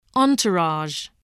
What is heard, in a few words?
"entourage", voice, english